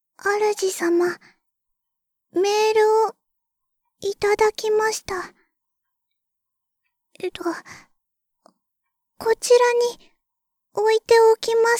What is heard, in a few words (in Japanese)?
メール着信, システムボイス; ベル・カールステッド